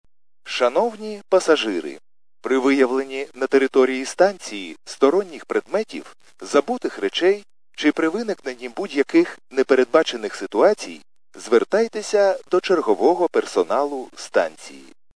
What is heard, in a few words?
khs st male, subway station